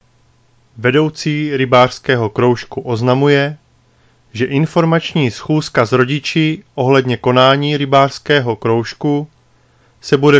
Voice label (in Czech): Rybářský kroužek, rozhlas